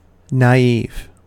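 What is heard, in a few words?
"naive", US English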